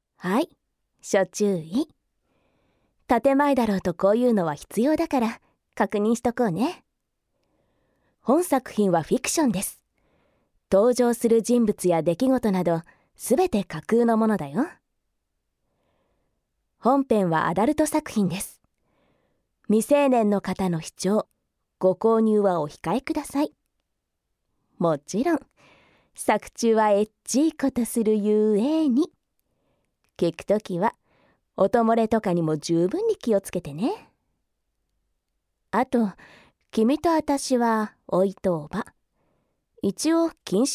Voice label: aggressive